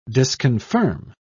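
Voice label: "disconfirm", English, British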